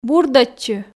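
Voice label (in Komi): "бурдӧдчы", Komi, female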